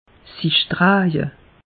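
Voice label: "draje", Alsatian; meteo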